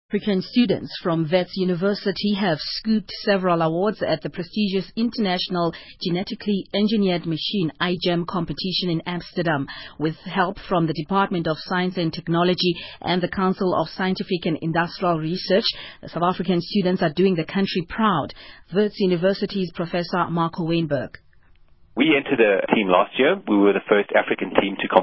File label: fm radio news